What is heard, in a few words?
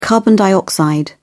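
"carbon dioxide", English, British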